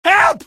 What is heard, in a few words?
"help", male; npc; Garry's Mod; hl2